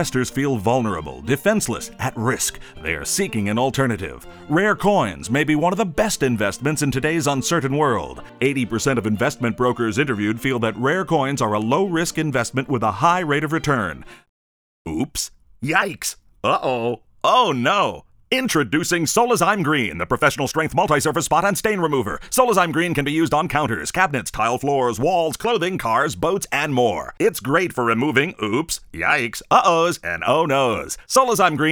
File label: Direct Response Infomercials